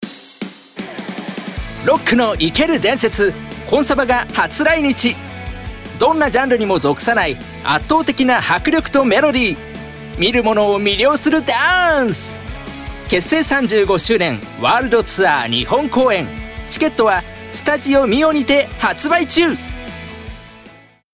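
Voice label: commercial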